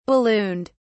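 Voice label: "ballooned", British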